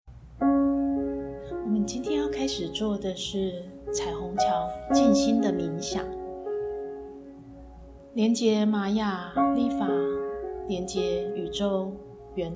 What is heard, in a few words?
Rainbow Bridge meditation sample